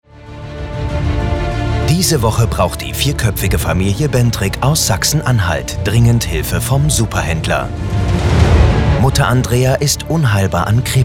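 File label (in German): Kommentar Dynamisch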